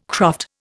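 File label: "croft", Indian English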